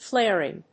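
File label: "flaring", English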